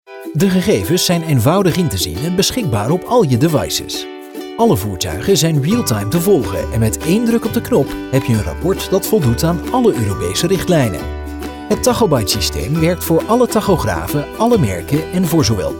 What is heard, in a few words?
male dutch voice over talent upbeat